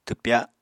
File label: "twpya", Apatani